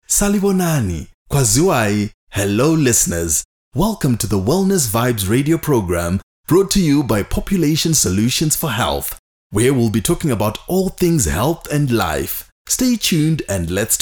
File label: English opening billboard